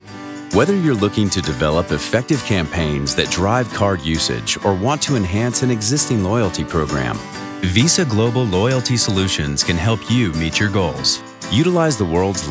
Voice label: Corporate Narration Credit Card; Visa